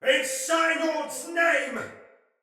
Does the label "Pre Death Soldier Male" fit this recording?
Yes